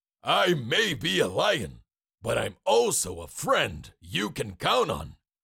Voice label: Cartoon lion voice; i am your friend